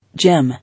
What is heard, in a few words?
"Gym", English